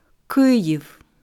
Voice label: "Kyiv", ukrainische Aussprache